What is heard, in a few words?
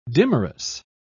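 "dimerous", British English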